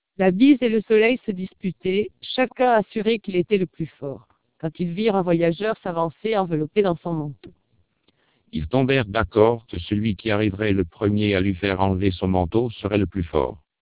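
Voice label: twelp 1600 French, vocoders lowrate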